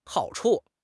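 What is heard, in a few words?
"hao3 chu5", Mandarin Chinese; HSK4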